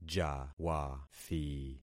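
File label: "jawathi", concatenated syllables; Affixing experiment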